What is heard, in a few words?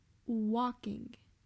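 "Walking", English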